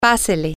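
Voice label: come on in, Spanish, female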